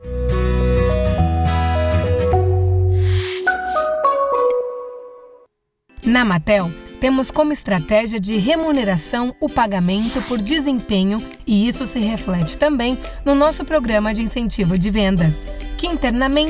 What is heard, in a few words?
female brazilian portuguese compilation